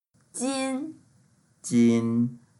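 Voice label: "jin1" Mandarin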